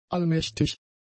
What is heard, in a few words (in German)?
"allmächtig", German; adjectives